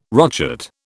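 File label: "rochet", British English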